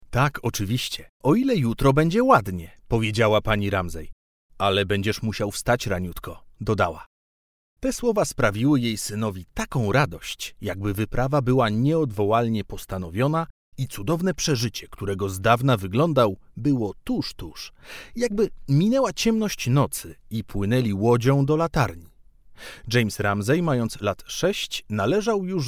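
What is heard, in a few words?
Polish, male, AUDIOBOOK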